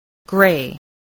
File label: "gray", spelling